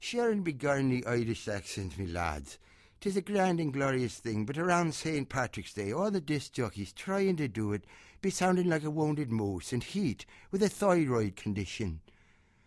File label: Irish